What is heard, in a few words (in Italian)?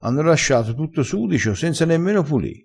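"e ninna", dialettale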